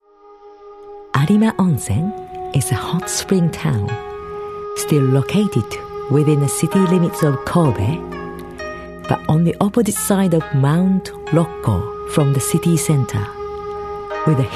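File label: Japanese, English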